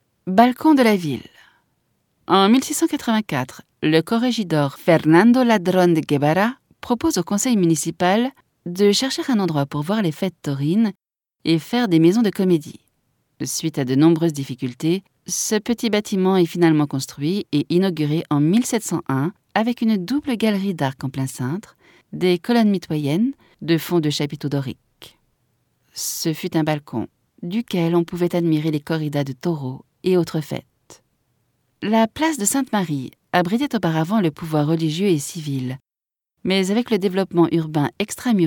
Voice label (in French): audioguia, frances; baeza